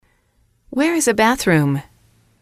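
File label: "where is a bathroom", English, female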